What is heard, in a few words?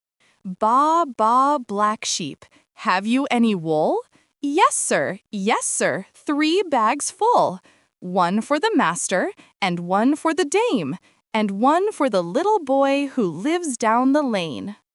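female US; Baa Baa Black Sheep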